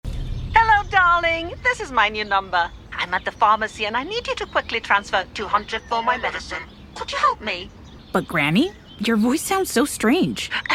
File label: english british animation